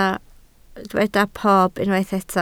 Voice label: "pob", Welsh

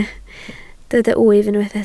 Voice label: "wyf", Welsh

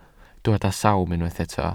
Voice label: "sawm", welsh

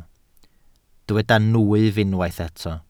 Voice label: "nwyf", welsh

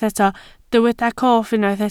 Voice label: "cof", Welsh

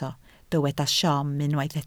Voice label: "siom", Welsh

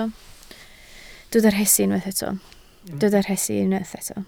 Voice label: "rhesi", Welsh

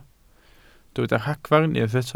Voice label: "ragfarn", Welsh

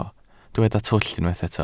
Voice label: "twll", Welsh

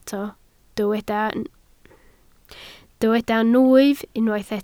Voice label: "nwyf", Welsh

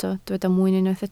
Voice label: "mwyn", Welsh

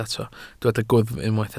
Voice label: "gwddf", Welsh

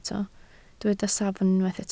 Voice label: "safon", Welsh

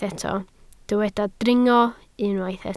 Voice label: "dringo", Welsh

